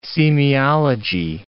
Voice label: "semiology", English